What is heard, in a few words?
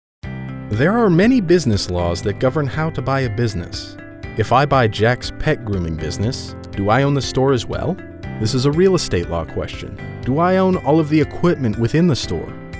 elearning, voice over